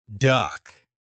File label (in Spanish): pato inglés; palabras ingles infantil